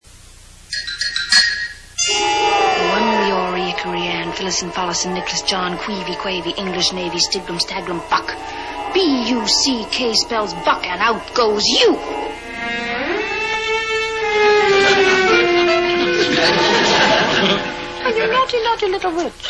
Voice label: incantation